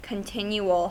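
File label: "continual", US English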